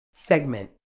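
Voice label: "segment", British English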